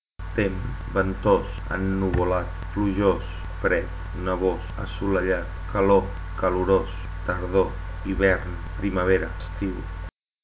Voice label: catalan; weather

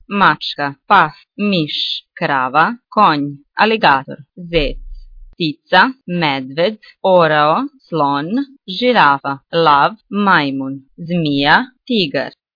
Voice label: bosnian; animals

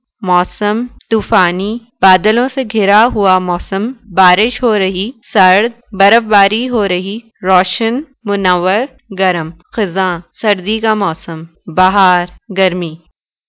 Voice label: Urdu weather

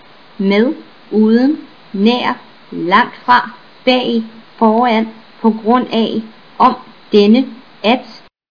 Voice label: danish; words

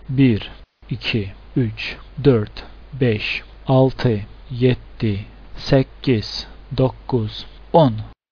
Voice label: azerbaijani; numbers

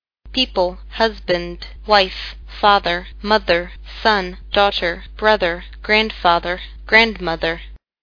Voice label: english; people

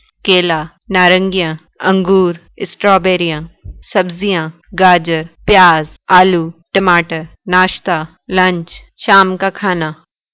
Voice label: Urdu food

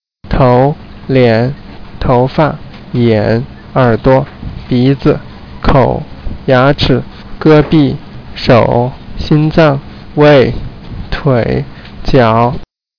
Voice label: chinese

